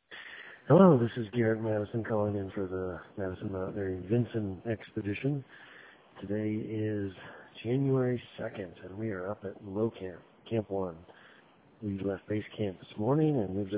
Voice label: voicemail